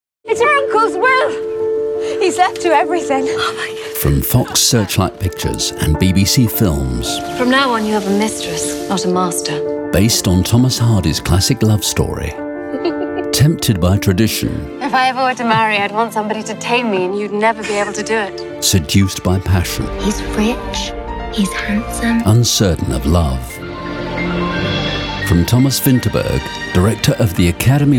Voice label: english british movie trailers